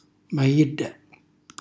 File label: "mailla", Calabrese; madia per impastare; cucina calabrese; Glossario